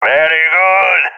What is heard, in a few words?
cheer, balkan; vo; balkan epic